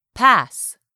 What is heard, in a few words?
"pass", English word; 3000 words